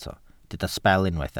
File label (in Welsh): "sbel" Welsh